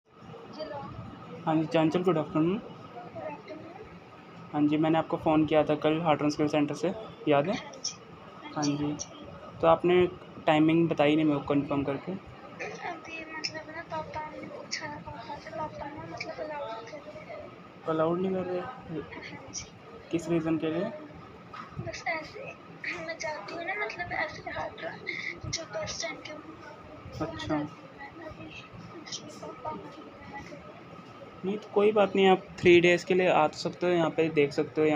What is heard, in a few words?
call recording